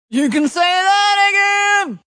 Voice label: You can say that again, Male, Exclamation